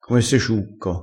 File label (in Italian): "ciucco", crespinese